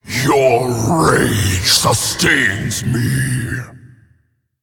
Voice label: Male Faceless